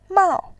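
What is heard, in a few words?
"mao", Mandarin, tone 4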